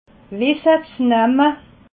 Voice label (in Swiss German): "wissezenamme", alsace